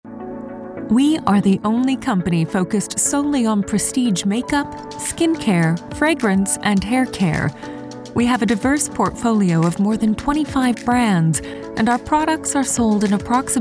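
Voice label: american voice corporate, American Female Voice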